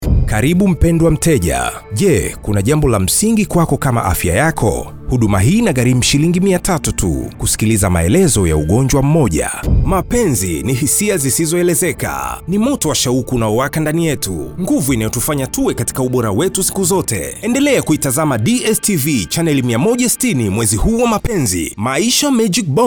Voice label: swahili commercial; Tanzania; Highly skilled Swahili voice talent with over 10 years of experience, native to Tanzania

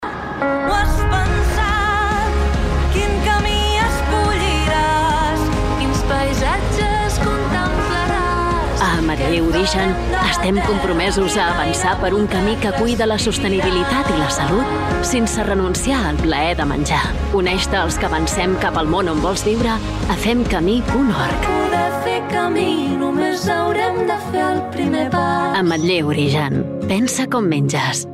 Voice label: catalan commercial; professional Spanish and Catalan voice actor

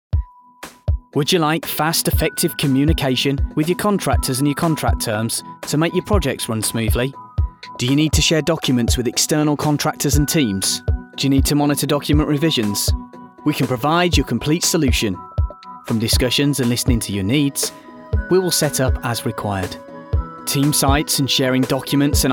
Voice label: english british corporate videos

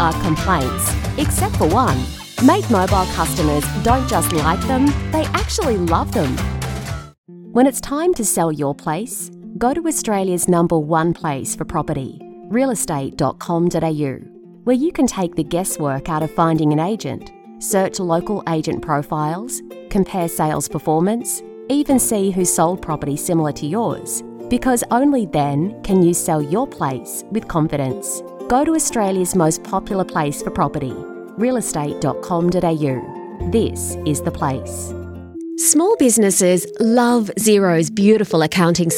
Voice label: english australian promos

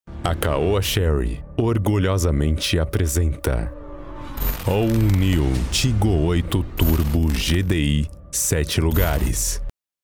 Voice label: Portuguese Brazilian automotive

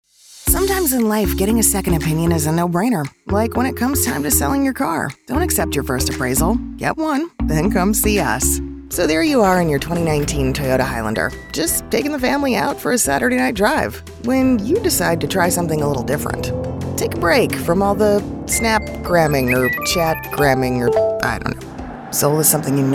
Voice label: english american automotive

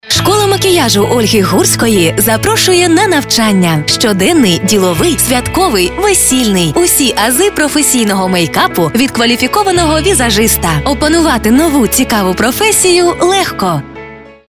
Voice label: ukrainian commercial

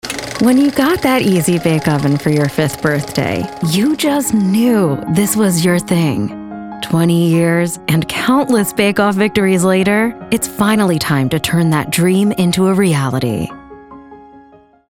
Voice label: english american corporate videos

